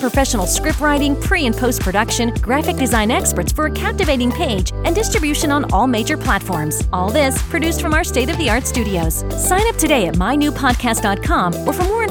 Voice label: Podcast